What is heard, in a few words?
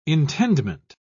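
"intendment", British English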